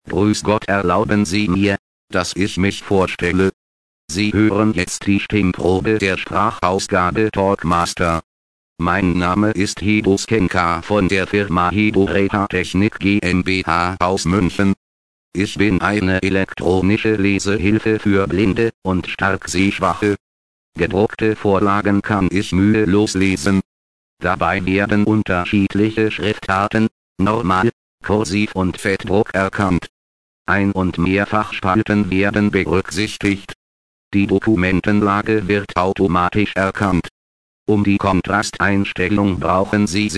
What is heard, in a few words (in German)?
maennlich, Sprachproben; TalkMaster